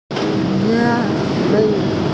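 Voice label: "xia1mi5", Mandarin Chinese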